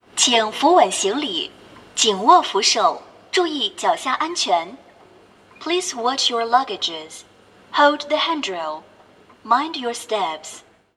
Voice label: Shanghai Airport Escalator Announcement Please Hold Handrail